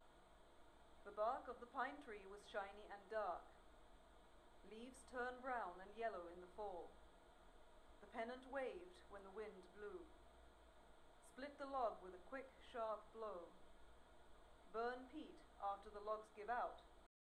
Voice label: female voice voice passthrough